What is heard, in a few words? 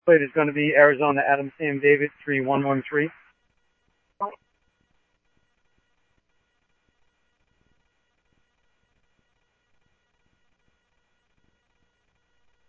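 police department, scanner audio trunk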